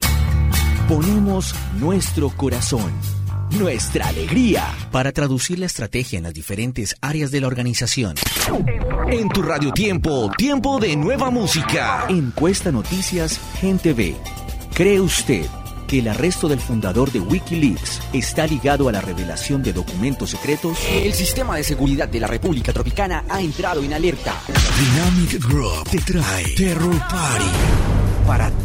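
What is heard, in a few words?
Spanish Latin American General; GoLocalise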